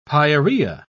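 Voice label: "pyorrhoea", British English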